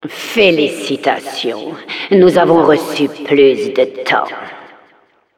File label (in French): Announcer time awarded congrats